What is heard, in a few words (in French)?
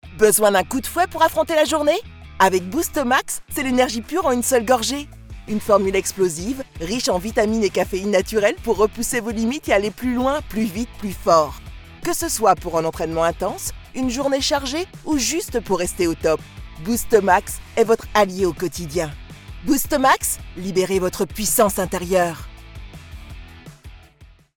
boost max, voix off féminine